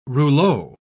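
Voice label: "rouleau", British English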